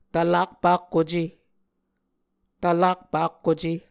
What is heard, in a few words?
"tvlak pakkuce", Muscogee